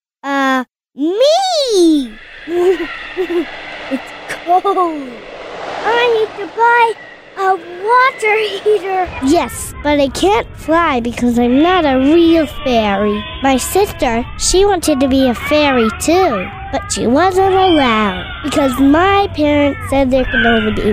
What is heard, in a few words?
child voice actor